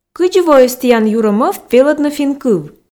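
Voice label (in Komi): кыдзи воис тіян юрӧ мӧвп велӧдны финн кыв, Komi, female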